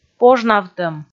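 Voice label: "пожнавтӧм", Komi, female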